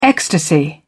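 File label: "ecstasy", British English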